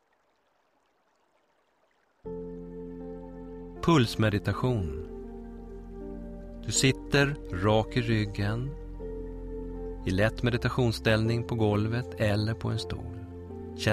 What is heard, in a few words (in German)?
Pulsmeditation